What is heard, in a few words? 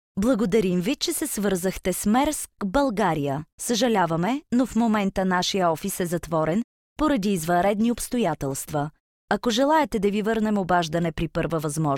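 bulgarian female ivr; voice demos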